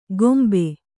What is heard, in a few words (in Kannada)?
"ಕೊಂಬೆ", Kannada